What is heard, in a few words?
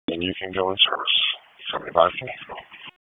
PS TAC, p25; wake